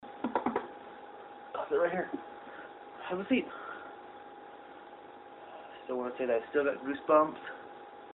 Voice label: HOLD IT original EVP